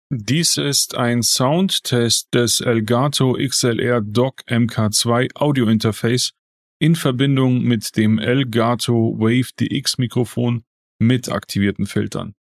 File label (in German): Elgato XLR DOCK MK.2 WAVE DX mit Filtern